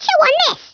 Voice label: "watch this", American; Speech; International

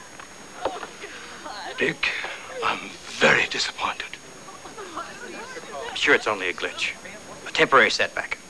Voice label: Disappointed

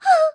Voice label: voice delay; FantasyTennis

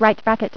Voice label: Right Bracket, female voice; TalkingKeys

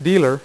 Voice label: "dealer", dealer's speech; PowerPOKER Demo

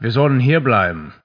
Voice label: german; SpecOps2

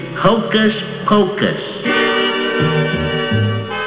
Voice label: hocus pok, cartoon

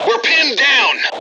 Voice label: suppressed, M Voice English

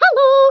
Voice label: "Hello", Speech, Dutch; International; 11khz

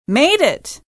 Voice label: female made it; Survivor The Interactive Game; Player

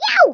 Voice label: "ouch", American

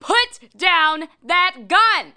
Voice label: THREAT; Messiah; DOMINA

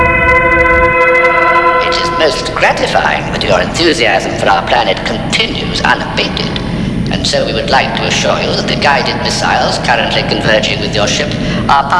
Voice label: threat

